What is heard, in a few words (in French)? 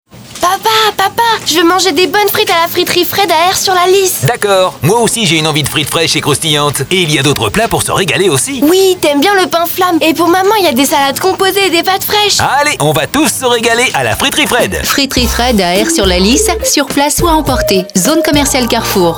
radio pub spot